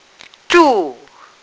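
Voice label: "zhu4", Mandarin Chinese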